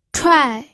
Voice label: "chuai4", pinyin

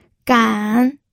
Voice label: "gan3", pinyin audio

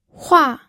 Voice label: "hua4", pinyin audio

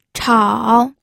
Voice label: "chao3", pinyin

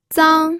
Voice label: "zang1", pinyin

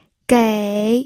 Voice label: "gei3", pinyin audio

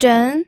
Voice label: "zhen2", pinyin

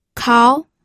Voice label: "kao2", pinyin

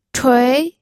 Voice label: "chui2", pinyin

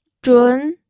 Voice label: "zhun2", pinyin

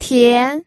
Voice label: "tian2", pinyin

